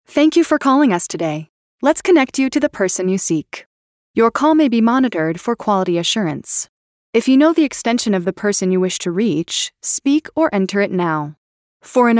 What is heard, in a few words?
english us female ivr